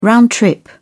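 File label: "round trip", British English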